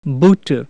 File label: Sindhi shoes